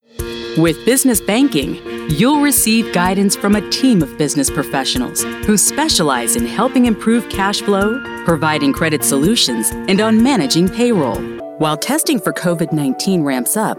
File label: english american explainer videos